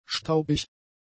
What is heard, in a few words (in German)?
"staubig", German; adjectives